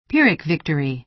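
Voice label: "pyrrhic victory", American English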